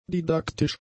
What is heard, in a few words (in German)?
"didaktisch", german; adjectives